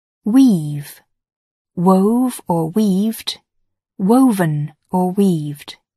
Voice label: "weave", English